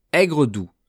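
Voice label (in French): "aigre-doux", French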